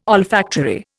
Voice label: "olfactory", Indian

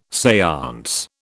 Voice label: "seance", British

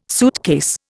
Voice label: "suitcase", Indian

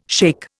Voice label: "shake", Indian

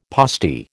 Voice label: "postie", American

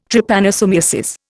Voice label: "Trypanosomiasis", Indian

